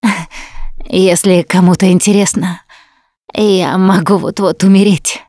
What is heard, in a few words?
going to die, russian; Producer